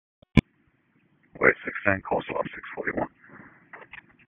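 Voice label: Dispatch 1, P25; WSO DISP 1; wake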